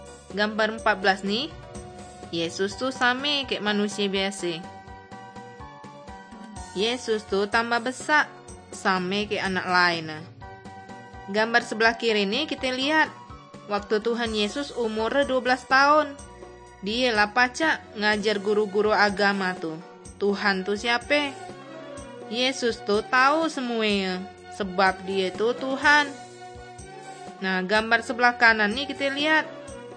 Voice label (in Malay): kabar baik good news malay bangka